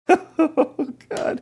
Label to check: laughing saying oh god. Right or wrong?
Right